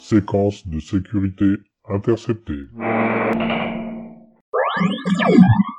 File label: frequence intercepted 50 mhz